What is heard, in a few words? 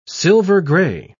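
"silver-gray", British English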